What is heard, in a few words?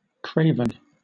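"craven", British English; pronunciations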